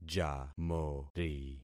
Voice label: "jamori", concat syllables; Affixing experiment